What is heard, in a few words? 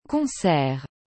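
"concert", French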